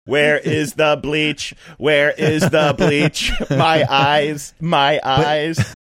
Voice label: saying where is the bleach where is the bleah robot